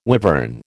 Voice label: "wivern", American

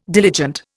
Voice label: "diligent", Indian

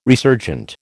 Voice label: "resurgent", American

